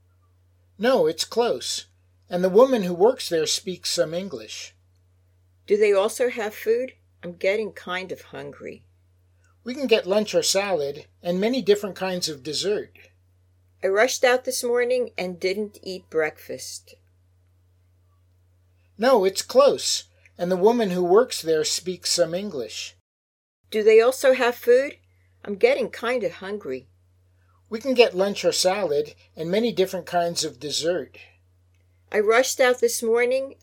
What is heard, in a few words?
Natural Dialog; Lesson 3